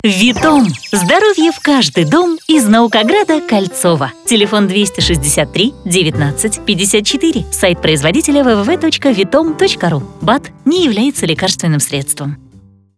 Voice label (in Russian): reklamnii rolik; Vetom